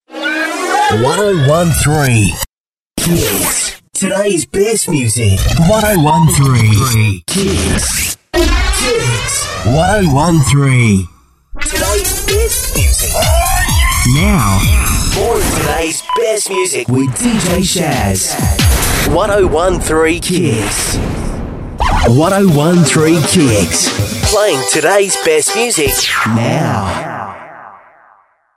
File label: imaging